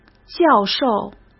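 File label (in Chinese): "教授", Mandarin Chinese; chinese-flashcards; hsk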